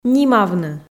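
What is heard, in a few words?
"нимавны", Komi, female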